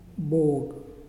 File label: "night", Muyuw; Lae Unitech